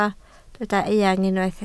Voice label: "eang", Welsh

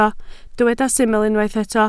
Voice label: "syml", Welsh

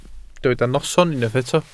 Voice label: "noson", Welsh

